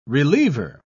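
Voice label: "reliever", British English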